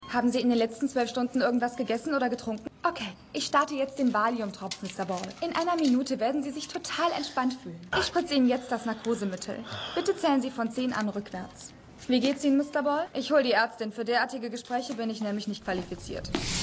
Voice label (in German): Krankenschwester, Stimmproben; Shameless